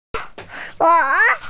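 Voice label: "zhe2", Mandarin Chinese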